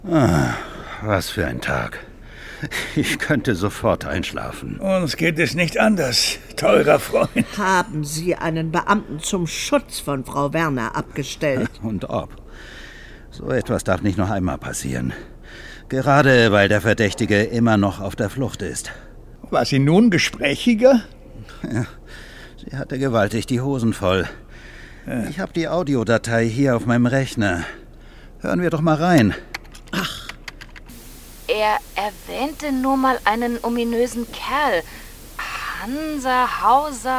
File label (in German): Stimme des Unheils; LOFT VOICES